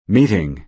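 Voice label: "meeting", American English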